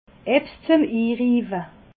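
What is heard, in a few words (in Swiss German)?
"inriiwe", Alsatian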